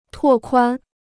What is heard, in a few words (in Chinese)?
"拓宽", Chinese